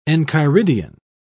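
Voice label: "enchiridion", British English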